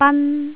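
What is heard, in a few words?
"ban1", Jyutping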